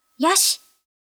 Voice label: Vo Female; gekiban